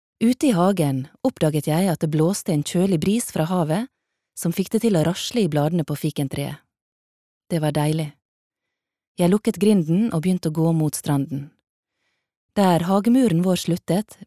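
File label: no audio book sunnmore, Norwegian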